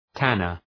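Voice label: "tanner", English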